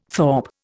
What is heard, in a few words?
"thorp", Indian